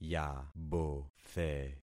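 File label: "yabothe", concatenated syllables; Affixing experiment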